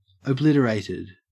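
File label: "obliterated", Australian English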